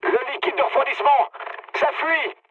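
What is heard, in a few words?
damaged water, mood high, French; War Thunder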